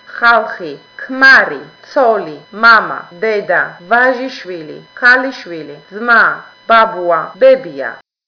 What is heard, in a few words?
georgian; people